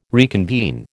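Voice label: "reconvene", American English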